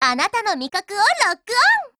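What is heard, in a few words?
PHRASE, Japanese; M110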